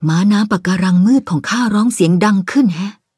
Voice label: levelup, th; Cookie Run